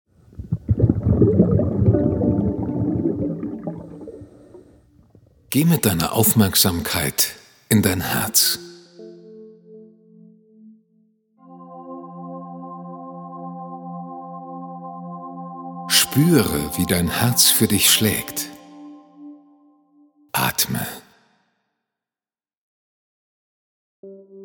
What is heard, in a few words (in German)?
herzmeditation